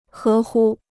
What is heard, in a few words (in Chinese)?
"合乎", Chinese